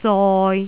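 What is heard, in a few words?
"zoi3", Cantonese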